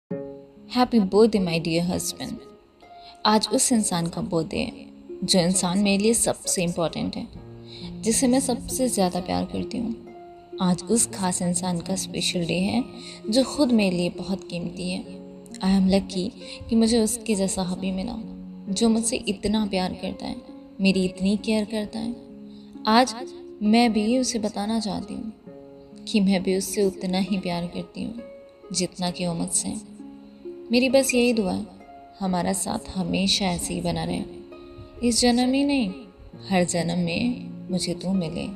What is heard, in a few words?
Birthday Poetry For Husband Shayari